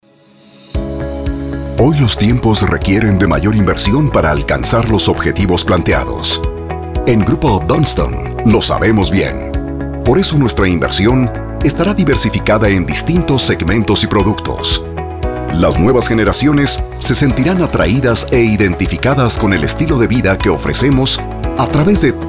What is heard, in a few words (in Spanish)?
locucion tono corporativo con musica